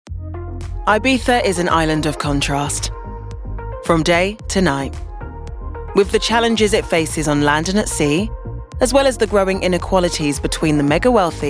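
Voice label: documentary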